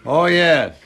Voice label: "oh yeah", voice